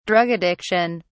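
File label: "drug addiction", British English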